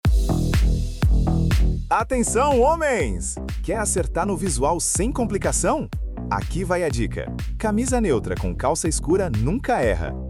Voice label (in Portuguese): Dica de Estilo Masculino, vinheta comercial voz; lojas de roupas